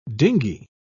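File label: "dingey", British English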